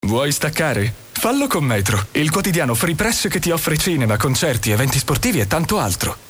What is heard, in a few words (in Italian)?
ITALIANO DINAMICA; FEMMINILE